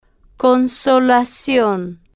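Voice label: "con-so-la-cion", Spanish, female, slow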